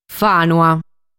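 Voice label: "Fànua", Sardinian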